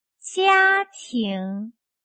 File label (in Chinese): "家庭", 汉语